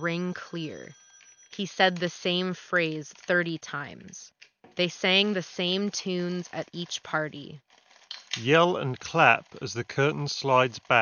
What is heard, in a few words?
Logitech Pro X2 Lightspeed Office microphone sample